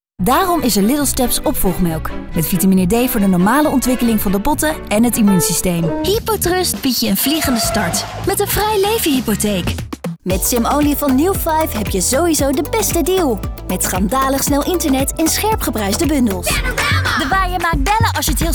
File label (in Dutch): Commercial Lang